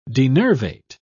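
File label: "denervate", British English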